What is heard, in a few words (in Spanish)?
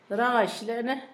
"arranca él", Zapoteco Asunción Ocotlan; INALI; Haspelmath